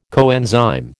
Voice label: "coenzyme", American